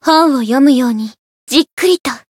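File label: Voice growth Japanese; veina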